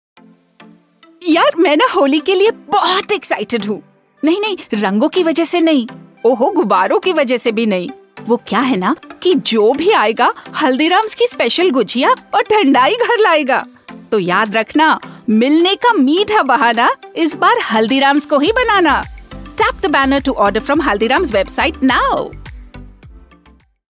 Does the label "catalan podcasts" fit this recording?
No